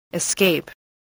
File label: "escape", voice, english